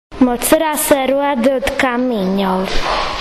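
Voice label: "mocira", Croatian dialect; suhozid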